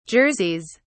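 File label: "jerseys", British English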